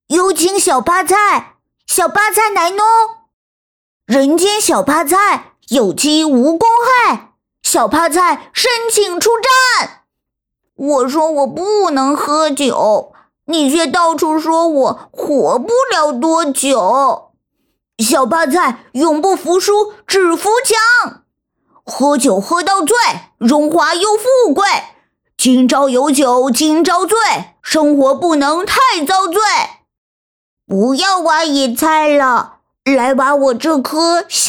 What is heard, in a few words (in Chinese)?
男童呆萌